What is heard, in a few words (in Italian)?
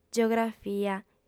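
"geografia", lingua sarda, female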